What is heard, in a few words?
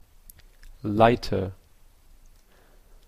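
"lighter", Canadian English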